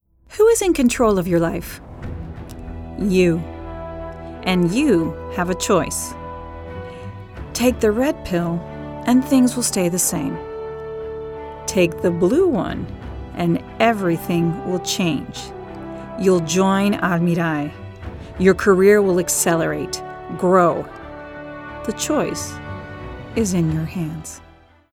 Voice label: female american english comercial; almirall

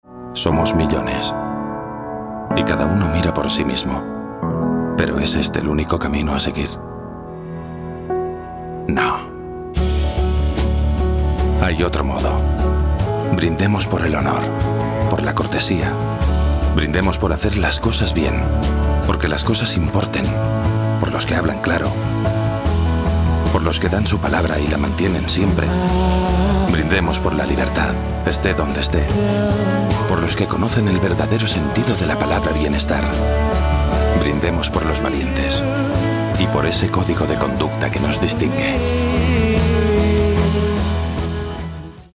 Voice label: male spanish beer comercial; ideasonora

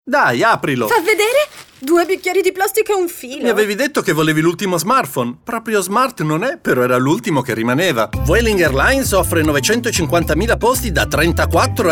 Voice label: female italian comercial; ideasonora

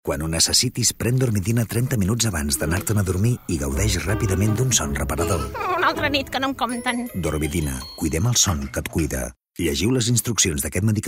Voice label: male catalan medicine comercial

